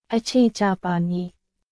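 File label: Myanmar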